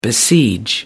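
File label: "besiege", English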